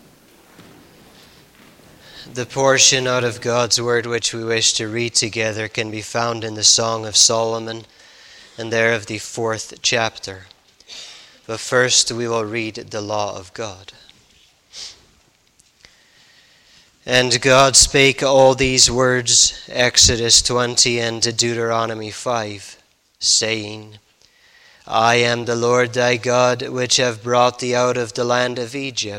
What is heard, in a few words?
Bible Reading